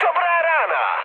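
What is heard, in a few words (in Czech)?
Czech player killed enemy, mood high; War Thunder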